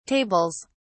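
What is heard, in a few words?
"tables", British English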